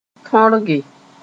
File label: "tõrge", Mooré